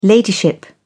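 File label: "ladyship", British English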